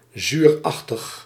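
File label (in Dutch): "zuurachtig", Dutch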